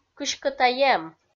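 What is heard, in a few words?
"кыськӧ тай эм", Komi, female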